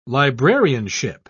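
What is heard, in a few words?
"librarianship", British English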